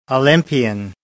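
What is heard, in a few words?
"olympian", American English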